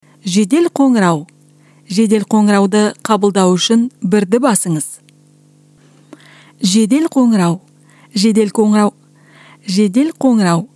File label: kazakh female